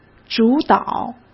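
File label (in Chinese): "主导", Mandarin Chinese; chinese-flashcards; hsk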